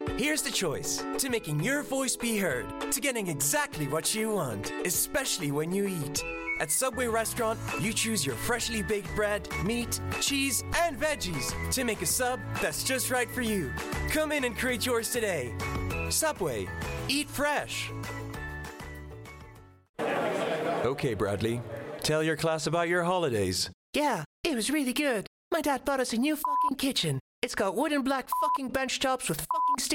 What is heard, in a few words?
male italian usa accent commercial